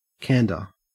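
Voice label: "candor" English, Australian accent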